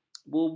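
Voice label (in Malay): "bubut", Malay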